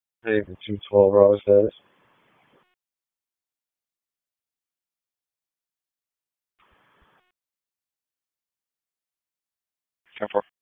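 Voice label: Police Northeast District Disp, radio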